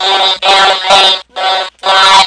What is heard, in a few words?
Robotic Ready